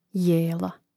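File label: "jela", Croatian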